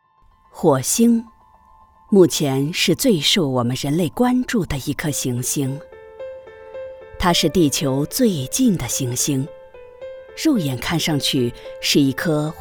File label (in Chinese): 专题科技感纪录片